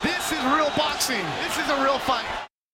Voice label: english; izotope